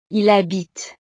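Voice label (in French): "il habite", French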